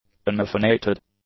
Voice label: "unhyphenated", english; adjectives